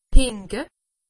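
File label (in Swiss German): "hinke", Alsatian; medecine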